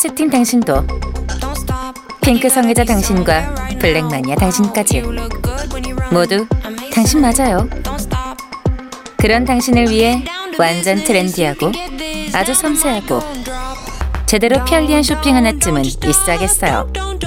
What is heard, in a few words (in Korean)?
시크한